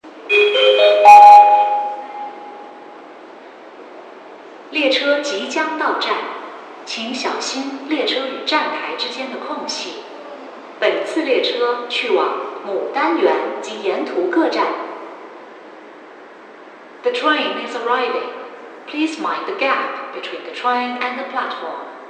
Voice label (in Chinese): 19号线 牡丹园方向进站